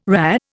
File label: "RHA", Indian